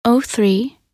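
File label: "03", English; minute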